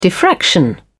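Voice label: "diffraction", en, British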